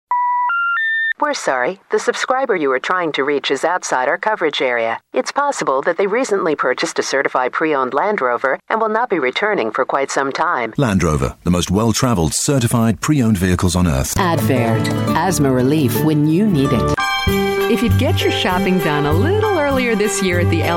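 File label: English Canadian, female, commercial; voice demos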